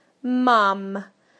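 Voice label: "mum", British English; PictureDictionary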